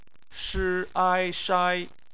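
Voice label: "shai" bopomofo, Chinese; phonetics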